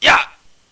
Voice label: sfx yah